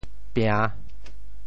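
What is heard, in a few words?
"pia~3", Teochew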